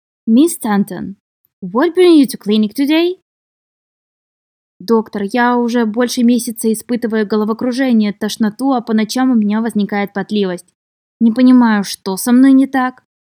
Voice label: Medical Certified Oral Russian Practice